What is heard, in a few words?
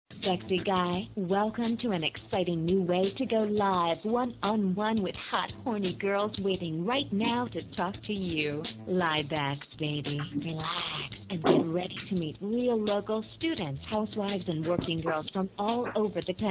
Outgoing Telephone Call